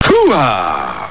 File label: "hoohah", vox; pacino